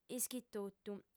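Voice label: "ischitotu", female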